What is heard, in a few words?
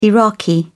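"iraqi", English, British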